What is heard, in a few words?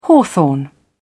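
"hawthorn", British English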